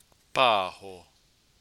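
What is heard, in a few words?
"paho", Māori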